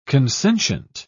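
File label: "consentient", British English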